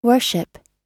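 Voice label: "worship", English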